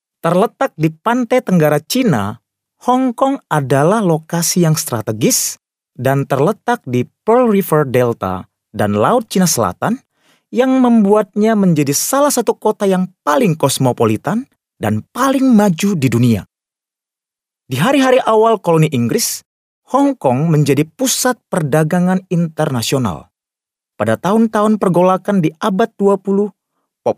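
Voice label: Indonesian male corporate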